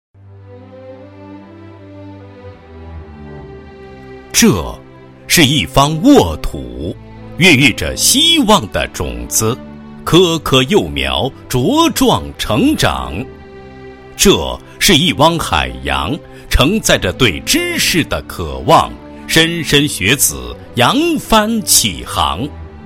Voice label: 男 学校 全日制公办小学 携手前行, 配音案例; 阳光实验小学

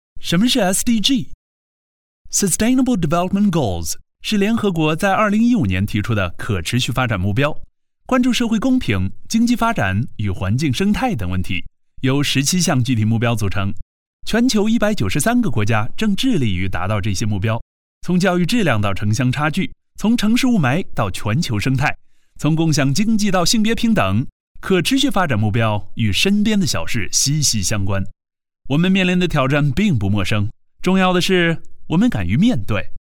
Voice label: 英男 课件 轻松语气; SDG介绍课件

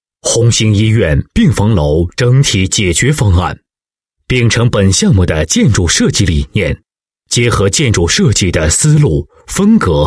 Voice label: 男 项目解说 医院, 国语男声配音; 红星医院

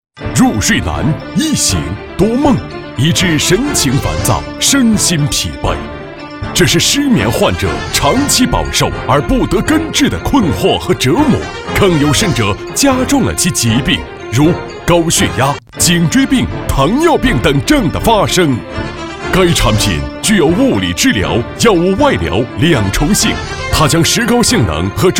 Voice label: 直销配音 医疗类产品电台促销

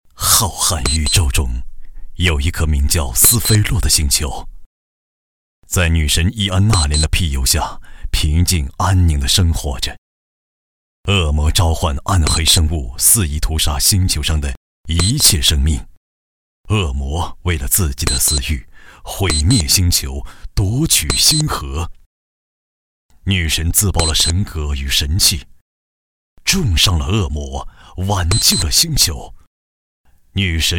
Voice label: 男, 游戏动漫; 命运之战配音

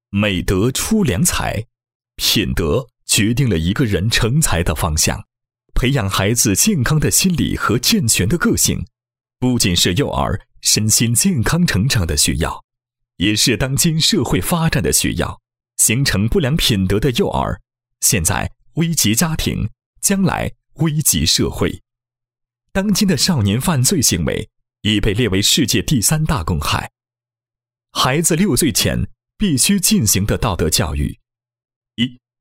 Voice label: 男 教育宣传片 宣传片; 庆祝蒙特梭利教育诞辰110周年

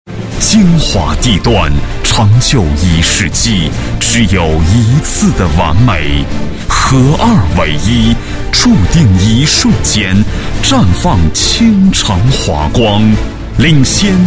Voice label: 男3-房产广告, 国语男声配音; 天百名宅

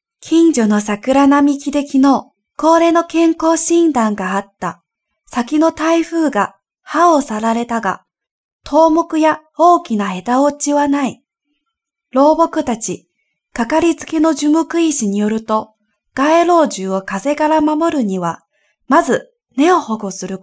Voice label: 日语女-解说

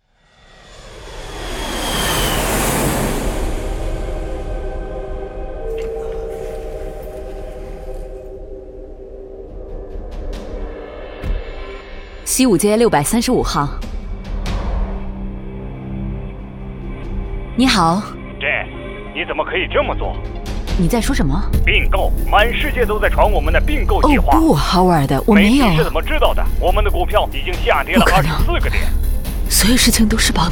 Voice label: 女 创意广告片; HP因特尔

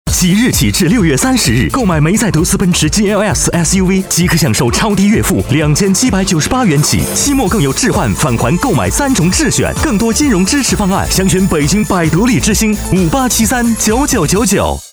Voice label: 男 奔驰GLS; 百得利之星

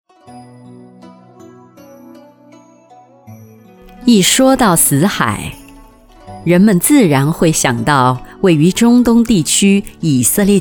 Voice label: 宣传片; 中国古海

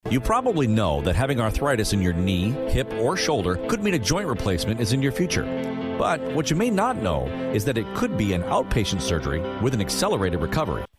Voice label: 外籍英男 关节炎治疗广告 轻快

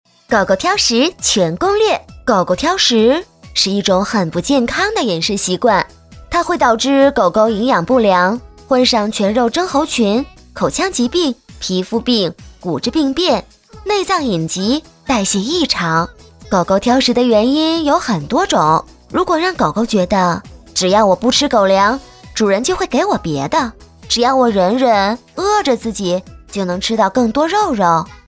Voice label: 女 专题 狗狗挑食全攻略, 国语女声配音

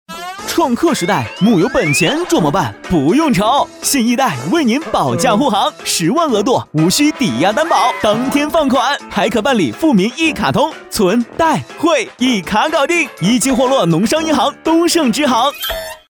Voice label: 男,广告,诙谐幽默

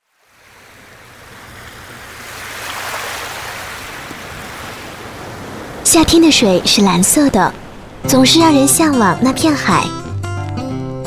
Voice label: 女19 片花 电台 夏季 清凉 舒爽 故事广播夏季片花汇, 国语女声配音

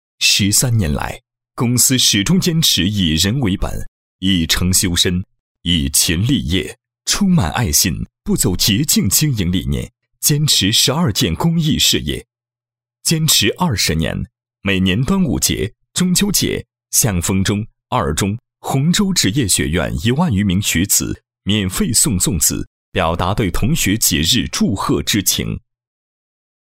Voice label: 男 企业宣传 公益事业宣传

